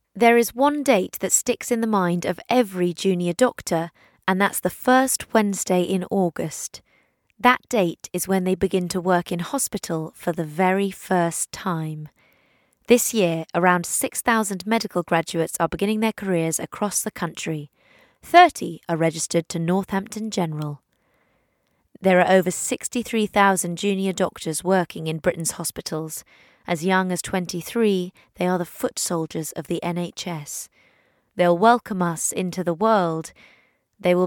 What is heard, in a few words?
narration